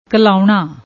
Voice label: "klaunna", Punjabi; ਕਲਾਉਣਾ